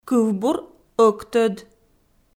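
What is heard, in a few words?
"кывбур ӧктӧд", Komi, female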